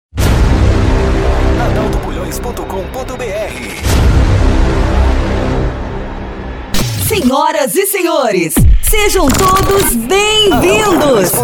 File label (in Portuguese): abertura para djs voz feminina vinhetas para djs voz feminina